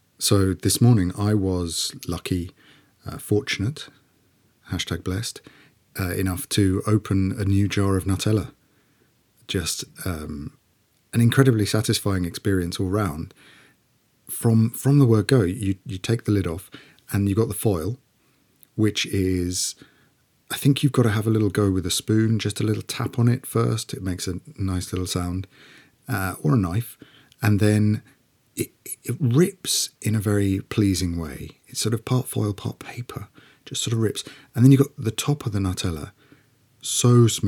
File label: Home Studio